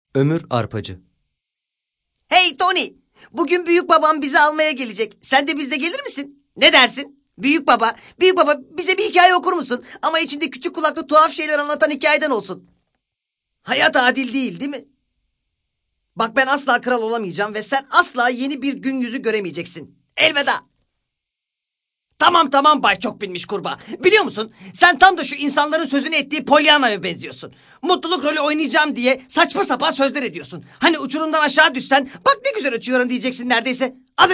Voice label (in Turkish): animasyon